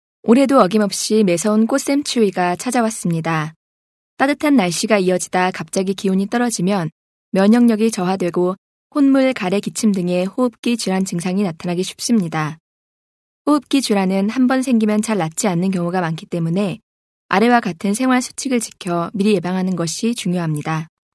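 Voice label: Korean, female, narration; korean accent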